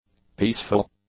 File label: "peaceful", English; adjectives